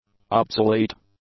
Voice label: "obsolete", english; adjectives